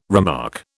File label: "remark", British